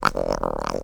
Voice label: Zombie